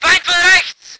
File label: voice ger vehicle